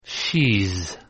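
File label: "she's", English contraction; Contractions Pronunciation Practice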